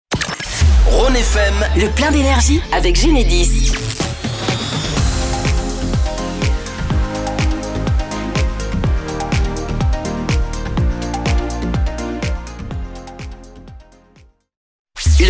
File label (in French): Spot Radio INTRO BED EXTRO; Genedis; Rhône SA; Le Plein dEnergie